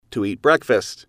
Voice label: "to eat breakfast", English